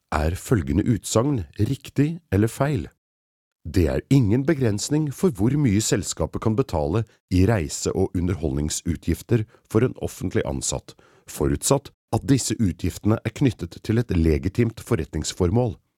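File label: Norwegian elearning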